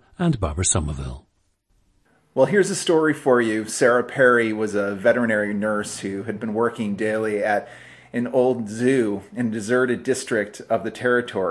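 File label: new york, International Dialects of English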